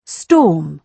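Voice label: "storm", British English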